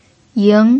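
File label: "ying2", Mandarin Chinese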